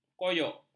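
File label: "koyok", Malay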